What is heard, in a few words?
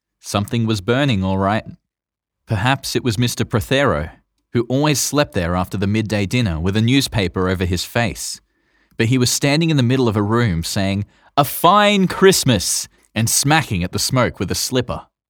Humorous Audiobook